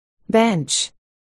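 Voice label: "bench", spoken word, articulation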